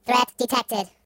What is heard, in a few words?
This one is mine, Robot; hedgewars